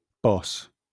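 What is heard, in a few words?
"boss", British English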